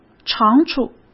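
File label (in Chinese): "长处", Mandarin Chinese; hsk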